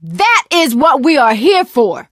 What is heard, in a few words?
hurrah, survivor voice; source engine; left 4 dead 2; producer